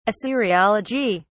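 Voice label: "assyriology", British English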